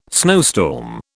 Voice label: "snowstorm", British